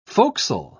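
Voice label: "forecastle", en, uk British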